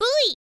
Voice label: "v", info girl voice